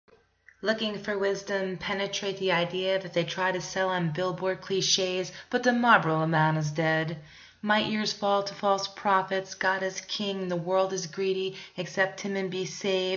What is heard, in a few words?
narration